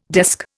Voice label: "desk", Indian

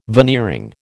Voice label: "veneering", American

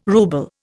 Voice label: "rouble", Indian

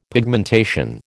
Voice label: "pigmentation", American

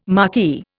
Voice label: "marquis", Indian

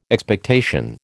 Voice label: "Expectation", American

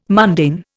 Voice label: "mundane", Indian

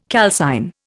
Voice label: "calcine", Indian

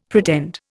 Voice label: "pretend", Indian; Dictionary

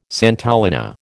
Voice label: "santolina", American

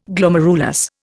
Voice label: "Glomerulus", Indian

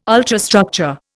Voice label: "ultrastructure", Indian

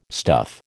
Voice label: "stuff", American

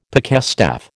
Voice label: "pikestaff", American

